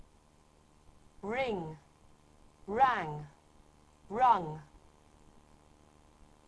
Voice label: "ring", verbs; rung